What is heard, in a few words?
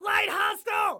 Warn Light, Excited Guy